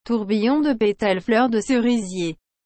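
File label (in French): "tourbillon de petales fleurs de cerisiers", French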